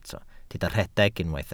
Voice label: "rhedeg", Welsh

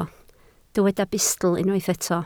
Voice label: "bustl", Welsh

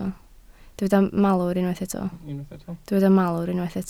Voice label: "malwr", Welsh

